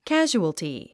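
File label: "casualty", English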